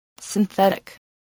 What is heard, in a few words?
"synthetic", voice spelling